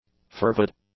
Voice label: "fervid", english; adjectives